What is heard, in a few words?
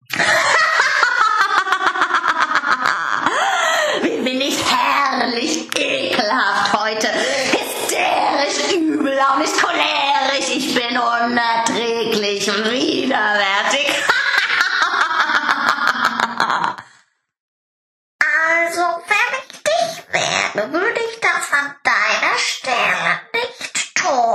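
German, Cartoon Characters; GoLocalise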